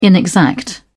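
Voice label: "inexact", British English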